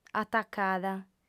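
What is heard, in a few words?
"atacada", female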